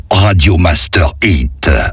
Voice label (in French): accap slow; Radio Master Hits; Voix off